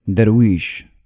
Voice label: "Dervish", Arabic